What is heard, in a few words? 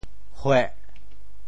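"hueh4", Teochew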